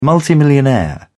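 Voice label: "multimillionaire", English, British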